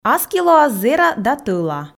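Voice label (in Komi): аски лоас зэра да тӧла, Komi, female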